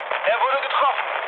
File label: aircraft destroyed, mood low, speech ge